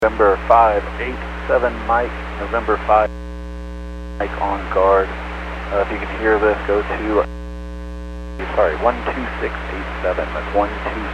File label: Aviation 121.5 Emergency